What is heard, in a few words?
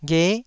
"ghe9", Taiwanese syllable